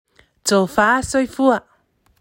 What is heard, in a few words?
Goodbye Tofa soifua, Samoa; 2022 Pacific Language Weeks